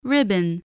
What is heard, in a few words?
"ribbon", English